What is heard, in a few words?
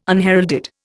"unheralded", Indian